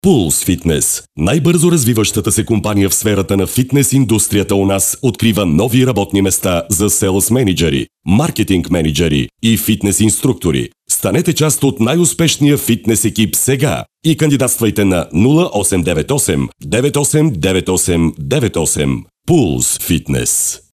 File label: Pulse Fitness VO F